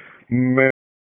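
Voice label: Police Spec Ops Tac, radio; Raleigh; wake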